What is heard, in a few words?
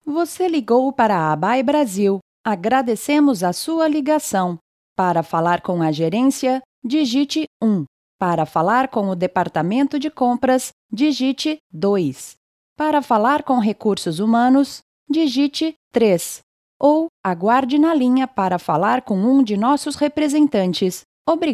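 Brazilian Portuguese IVR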